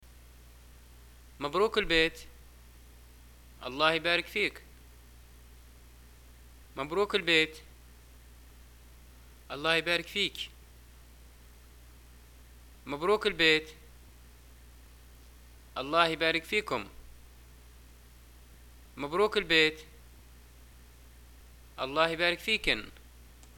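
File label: greeting buy new house, Libyan Arabic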